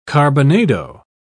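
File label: "carbonado", British English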